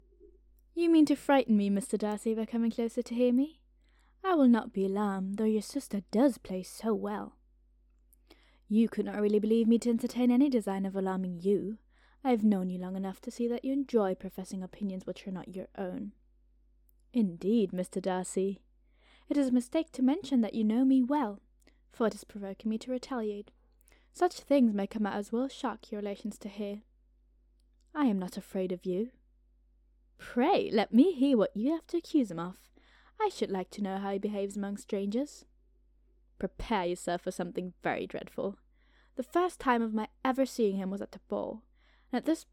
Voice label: English British Script; Pride and Prejudice

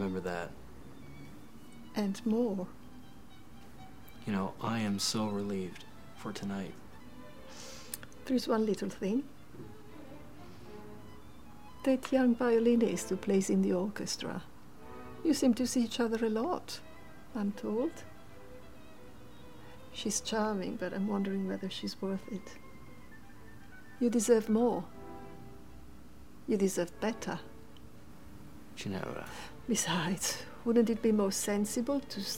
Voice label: English short

